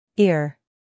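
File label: "ear", American English female; everyone-can-use-english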